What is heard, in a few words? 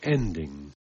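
"ending", English; spelling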